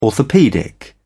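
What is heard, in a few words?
"orthopaedic", British English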